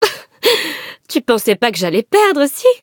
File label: victory, fr; cookie run